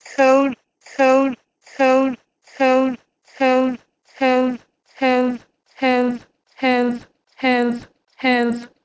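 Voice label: Proto-Indo-European keud to Albanian hedh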